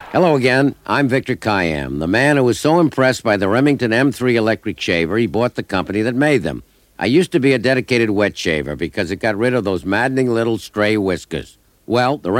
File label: Radio Hallam Advert; Remington M3